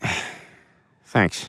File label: sigh Thanks